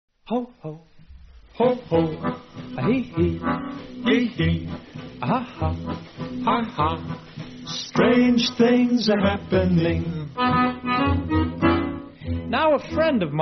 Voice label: Rhymed Recitations; broad minded

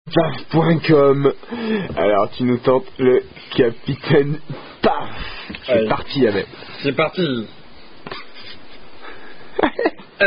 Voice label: French Are You A Cardinal Drinking Game; Les Sheriffs Du Capitainpaf Qui Dit Mieux - 1

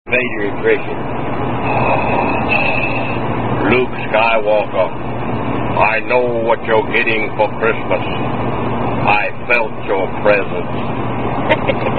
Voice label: Darth Vader Impression; Joke

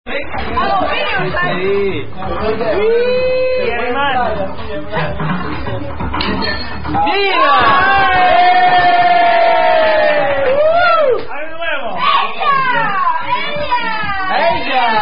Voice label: German Quarters Drinking Game; Houseparty In Palemro Trinkspiel

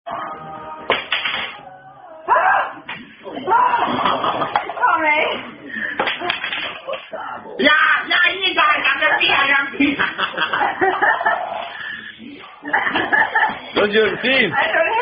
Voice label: Trinkspiel, German Dice Drinking Game; Trinkspiel 1

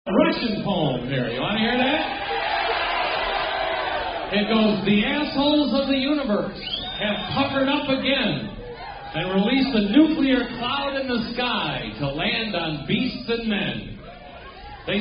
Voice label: Russian Poem

